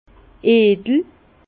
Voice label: "edel", Bas Rhin; vin; noble

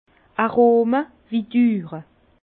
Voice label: "arome", Bas Rhin; vin; persistance aromatique

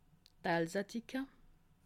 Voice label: "alsatika", alsace

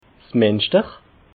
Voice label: "minschter", alsace

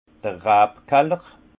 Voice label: "rabkaller", alsace; vin; caveau

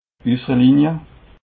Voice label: "usserlinie", alsace

